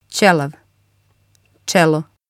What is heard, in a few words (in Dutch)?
"celav", bosnisch; uitspraak; kaal